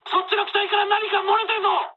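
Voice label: Japanese tank damaged mood high; War Thunder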